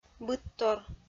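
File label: "быдтор", Komi, female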